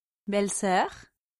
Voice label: "belle-soeur", French

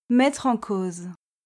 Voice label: "mettre en cause", French

